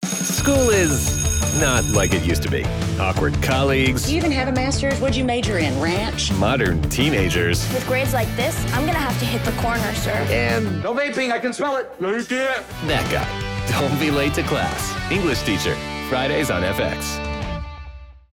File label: Promo Sitcom